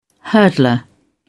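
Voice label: "hurdler", English, British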